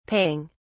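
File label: "paying", British English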